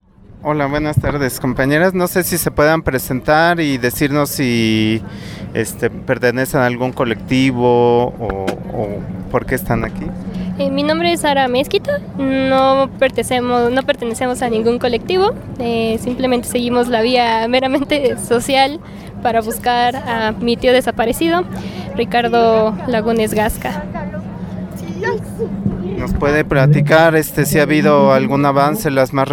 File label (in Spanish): Testimonio; Luto Nacional Teuchitlan